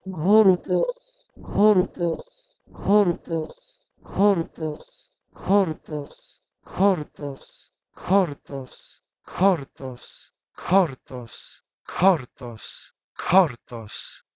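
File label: Proto-Indo-European ghordhos to Ancient Greek khortos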